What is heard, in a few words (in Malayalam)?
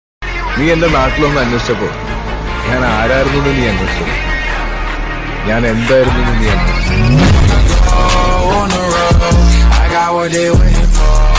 malayalam mass dialogues with bgm